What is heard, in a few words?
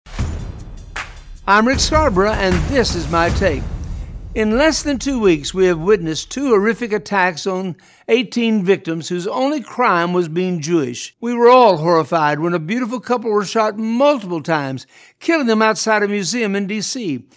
radio spot